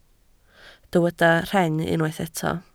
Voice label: "rheng", Welsh